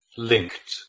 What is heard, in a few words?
"linht", Proto-Germanic